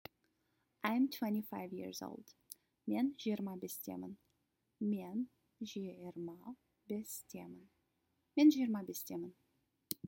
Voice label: Kazakh I am 25 years old

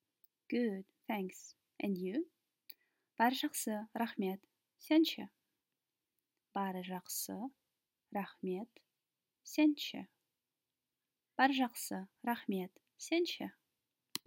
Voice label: Good thanks and you, kazakh

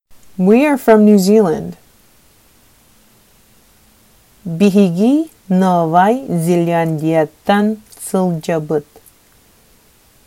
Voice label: Sakha We're from New Zealand

